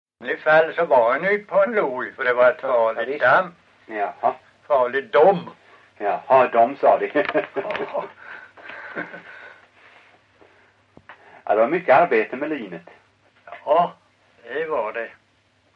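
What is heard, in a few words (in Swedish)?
Långlöt Småland, dialekter; Folkminnen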